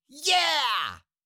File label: "yeah", Cartoon little monster voice